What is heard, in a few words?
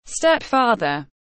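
"Step father", English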